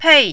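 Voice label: "pei", Mandarin, fourth tone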